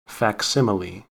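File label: "facsimile", American English; pronunciations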